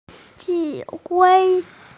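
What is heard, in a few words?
"command", female; zhi3hui1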